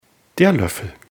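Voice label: "Loeffel", German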